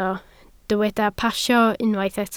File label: "pasio", Welsh